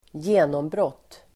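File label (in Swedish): "genombrott", Swedish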